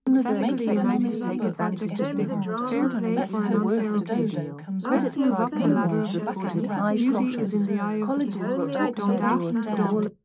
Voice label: female mix; SiSEC11; underdetermined

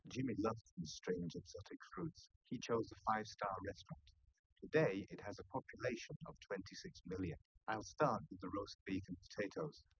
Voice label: male liverec; SiSEC10; underdetermined

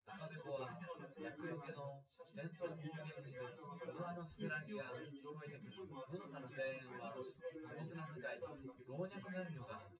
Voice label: test male synthconv 250ms 1m sim; SiSEC08; SiSEC underdetermined

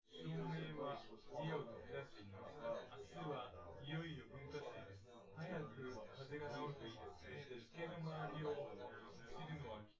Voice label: male synth conv

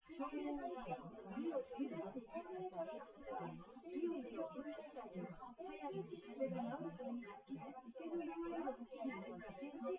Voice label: female, synthconv, src

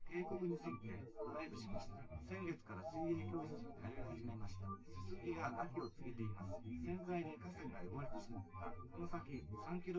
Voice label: test male synthconv

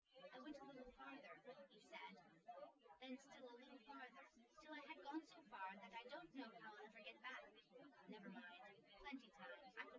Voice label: female; SiSEC08; SiSEC underdetermined

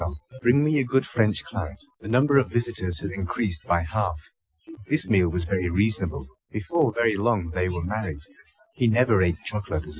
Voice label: male inst src; SiSEC11; underdetermined

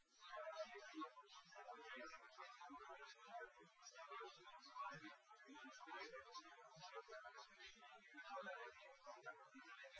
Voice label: male liverec 250ms 5cm

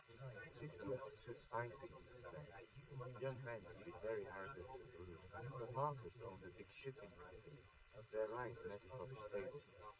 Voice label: male, live rec, 130ms; SiSEC11; underdetermined

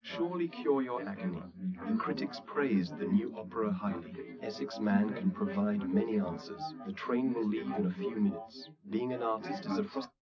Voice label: male; SiSEC11; underdetermined

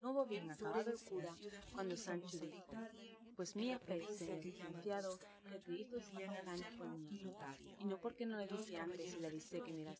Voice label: female4 inst sim

